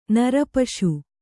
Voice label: "ನರಪಶು", Kannada